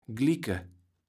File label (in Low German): "glicke", kaevels platt